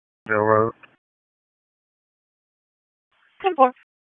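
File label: Dispatch 1, P25; WSO DISP 1; wake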